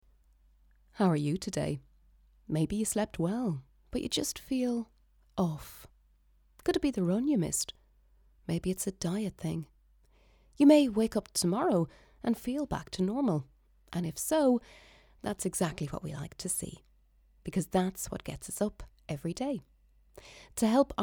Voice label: female english irish ivr, irish southern

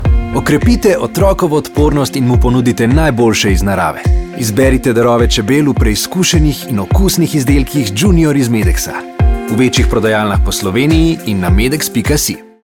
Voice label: male slovenian radio commercial; devine voices

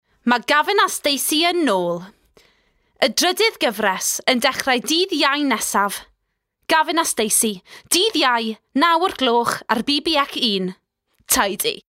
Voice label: female english welsh commercial; gavin and stacey; devine voices

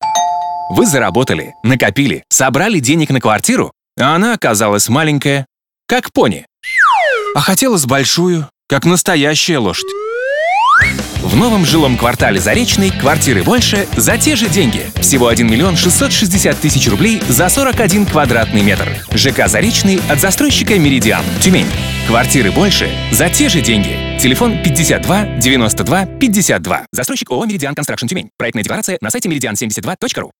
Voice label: male russian commercial

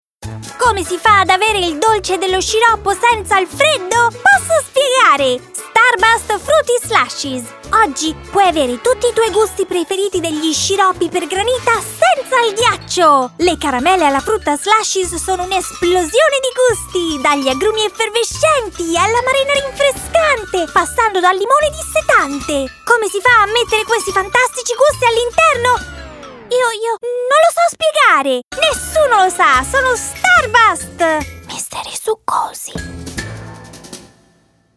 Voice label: female Italian commercial; Starburst

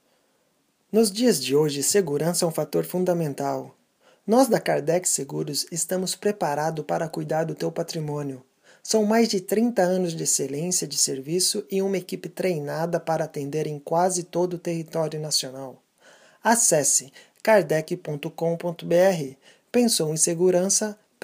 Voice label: male brazilian portuguese; kardec

